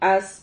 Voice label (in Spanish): "has", Silabas